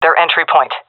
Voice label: loc enemy spawn, seal fem; vo; Counter Strike Model Pack; CS:GO